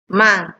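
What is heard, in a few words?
"man", Nigerian Pidgin, female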